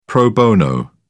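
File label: "pro bono", British English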